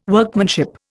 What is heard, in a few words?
"Workmanship", Indian